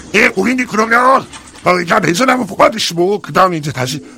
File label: heavy negative vocalization